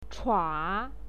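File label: "chua", Mandarin Chinese, third tone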